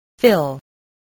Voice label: "fill", voice

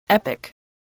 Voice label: "epic", voice, english

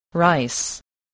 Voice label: "rice", English

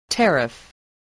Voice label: "tariff", English

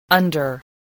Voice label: "under", English spelling voice

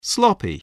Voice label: "sloppy", voice, spelling

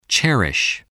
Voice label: "cherish", English, voice

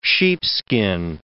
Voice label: "sheepskin", English

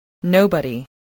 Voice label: "nobody", voice

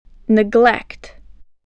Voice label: "neglect", voice

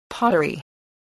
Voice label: "pottery", English

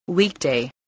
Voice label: "weekday", spelling

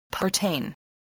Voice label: "pertain", voice, English

